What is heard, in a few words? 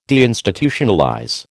"deinstitutionalize", American